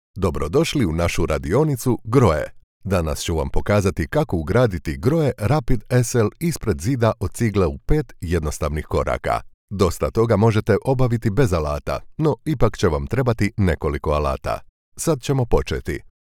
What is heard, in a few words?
croatian voiceover